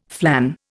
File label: "flan", Indian